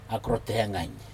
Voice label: akro te hagain, share food together, Aneityum